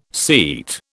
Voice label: "seat", British